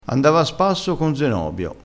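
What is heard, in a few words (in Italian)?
"cor", dialettale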